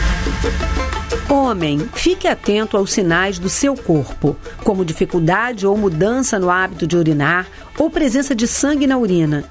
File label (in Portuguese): SPOT CÂNCER DE PROSTATA